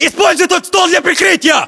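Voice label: order cover table, battlechatter, Russian; localized english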